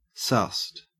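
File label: "sussed", Australian English; pronunciations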